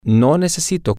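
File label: I need no, Spanish, male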